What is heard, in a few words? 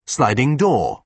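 "sliding door", British English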